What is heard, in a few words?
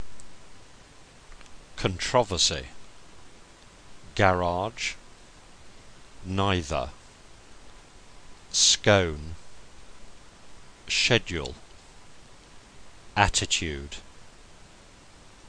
croydon male; shirley